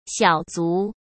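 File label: "小卒", Mandarin Chinese; chinese-flashcards; hsk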